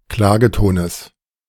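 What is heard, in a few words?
"Klagetones", German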